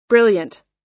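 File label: "brilliant", US English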